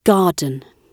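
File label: "garden" spoken, British English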